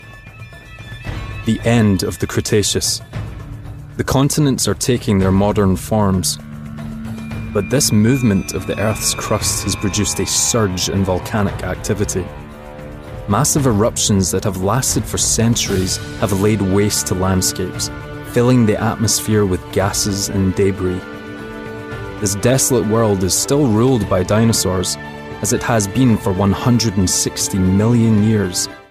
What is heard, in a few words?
Documentary; home studios